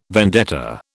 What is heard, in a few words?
"vendetta", British